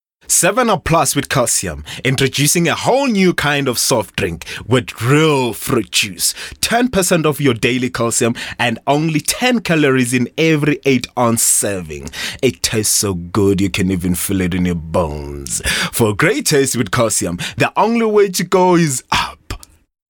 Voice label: English Bright

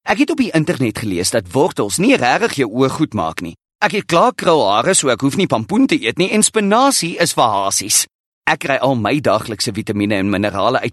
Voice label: Afrikaans Bright

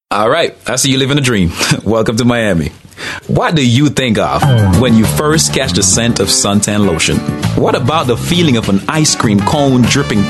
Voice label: English Conversational

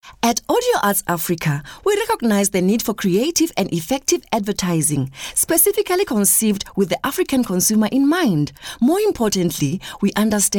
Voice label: English Corporate

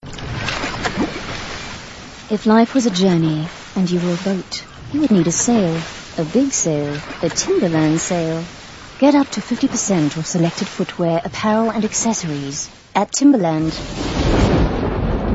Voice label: English Retail

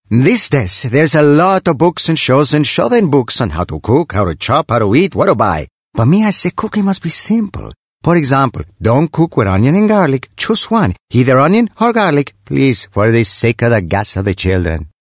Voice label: Accent Italian

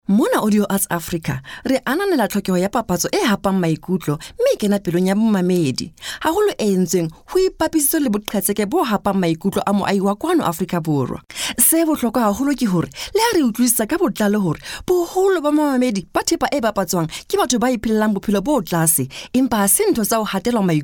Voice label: SeSotho Corporate

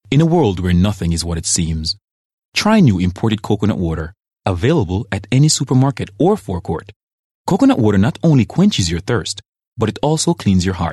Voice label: English Soft Sell

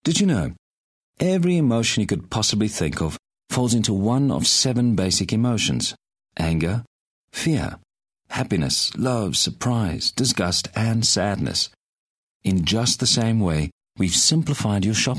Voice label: English Conversational

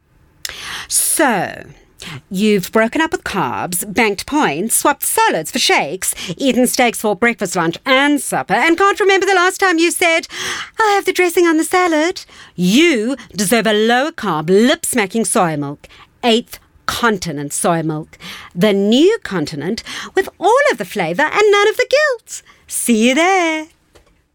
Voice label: English Energetic

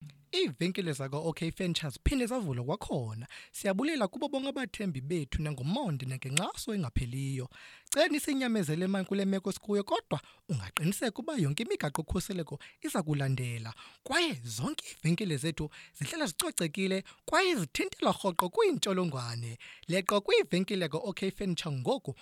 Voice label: Xhosa Conversational

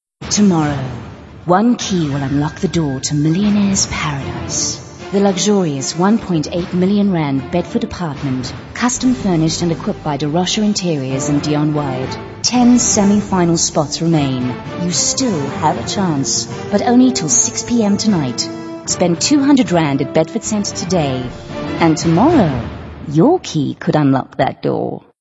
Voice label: English Hard Sell

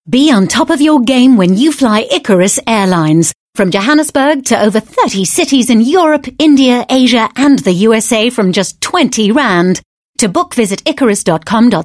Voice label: English Hard Sell

